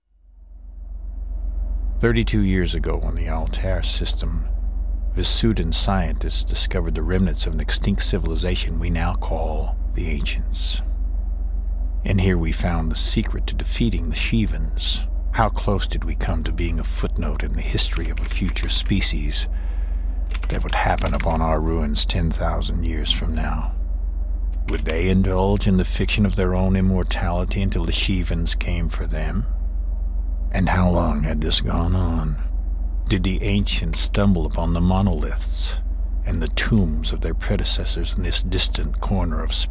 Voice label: Monologue; Ingame Movie; Bosch